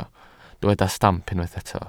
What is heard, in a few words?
"stamp", welsh